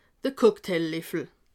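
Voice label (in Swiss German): "cocktail", Alsatian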